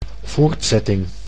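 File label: "voortzetting", Dutch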